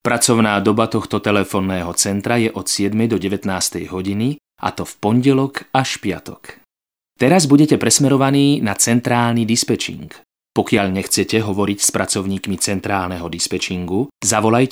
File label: male Slovak IVR